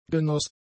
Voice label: "genoss", German; adjectives